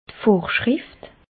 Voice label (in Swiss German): "vorschrift", alsace